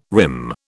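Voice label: "rim", British English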